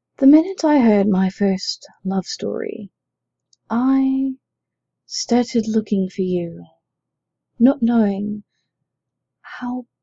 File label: poetry; Rumi; still pneumonia